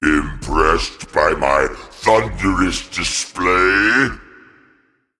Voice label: Male Titan Play